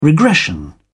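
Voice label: "regression", British English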